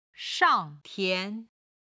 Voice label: "shang4 tian2", Chinese

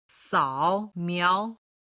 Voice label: "sao miao", Chinese

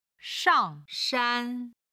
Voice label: "shang4 shan1", Chinese

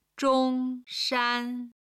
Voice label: "zhong1 shan1", Chinese

